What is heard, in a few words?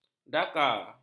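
"daka", Malay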